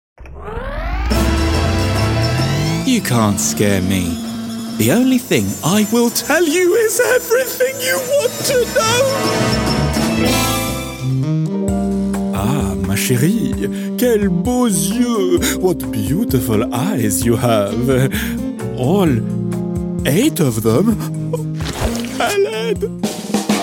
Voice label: french voice character

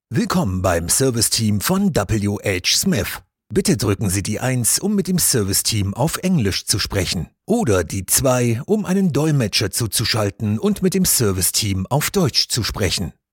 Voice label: german voice telephone

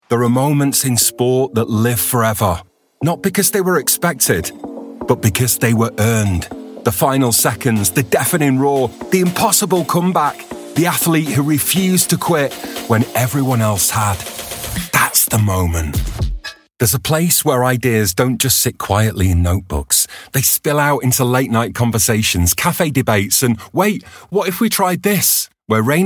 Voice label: northern

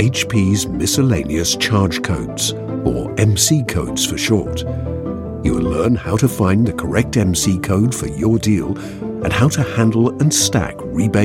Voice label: e-learning

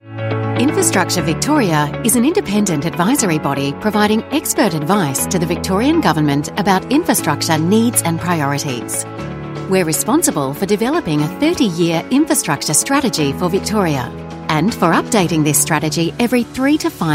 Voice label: australian voice corporate

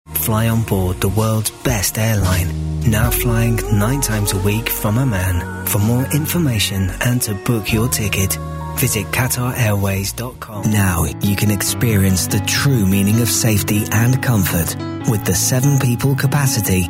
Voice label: relaxed

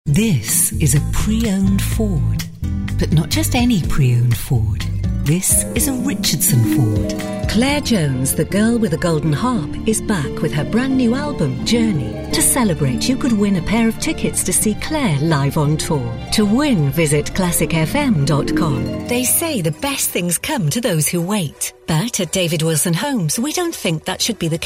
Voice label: commercials, Welsh Accented Voiceover Artist

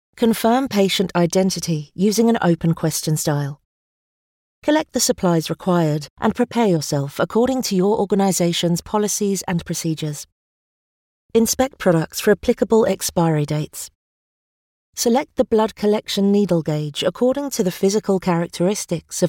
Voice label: elearning

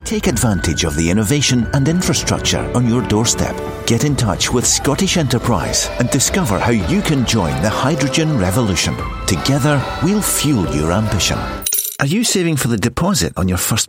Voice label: commercial

